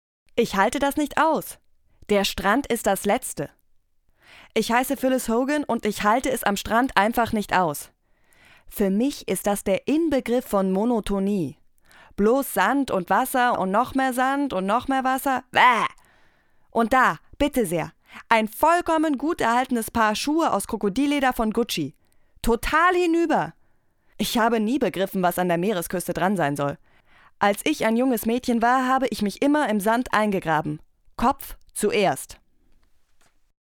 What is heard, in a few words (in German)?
Beispiel Drama; ich halte das nicht aus - drama